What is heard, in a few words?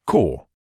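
"core", British English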